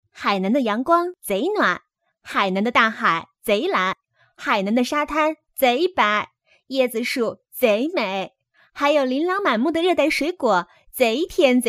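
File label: girl Northeastern dialect, Chinese; dongbeifangyan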